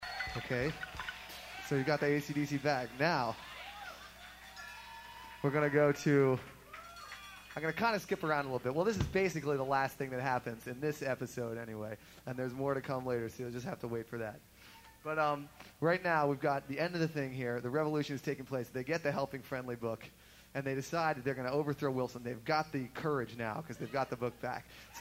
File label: Narration; Olympia, WA; North Shore Surf Club